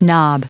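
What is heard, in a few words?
"knob", US English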